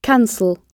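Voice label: "cancel", British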